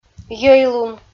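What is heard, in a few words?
"йӧйлун" Komi, female; audio dict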